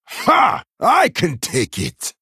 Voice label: Male Troll Attack